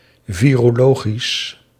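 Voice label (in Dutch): "virologisch", Dutch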